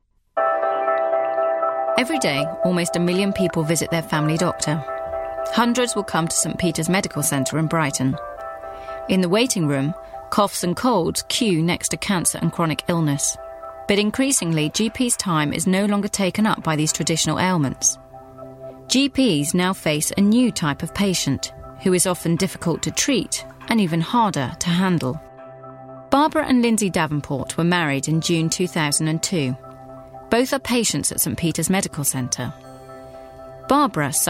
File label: Narration; Medical centre